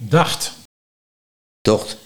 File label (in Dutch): "Dacht - Docht", Nieuw lekkerlands dialect